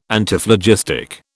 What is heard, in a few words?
"Antiphlogistic", British